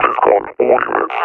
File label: reconnoiter start, officer; Half Life Alyx; Half Life Model Pack